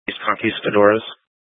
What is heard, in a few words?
Scottsdale Police, Simulcast